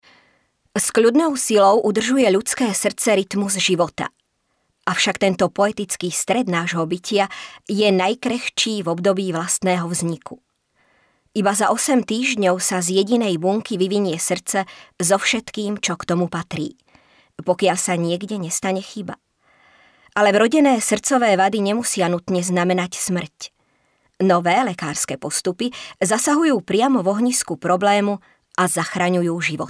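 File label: Female Slovak documentary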